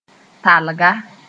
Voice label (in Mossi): "talga", moore